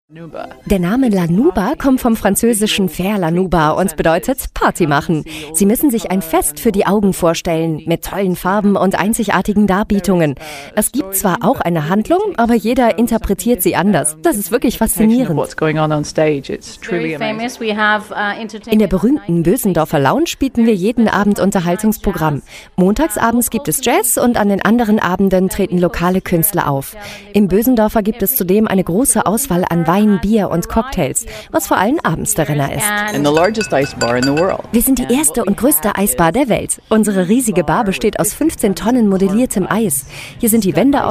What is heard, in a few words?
voice over